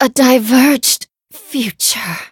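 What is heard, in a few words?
hit, English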